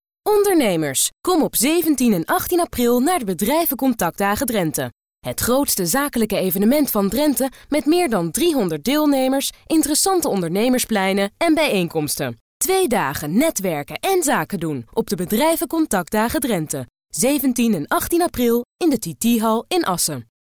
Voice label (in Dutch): regionale radiocommercial; Bedrijven Contactdagen Drenthe